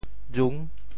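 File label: "jung6", Teochew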